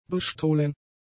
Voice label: "bestohlen", German; adjectives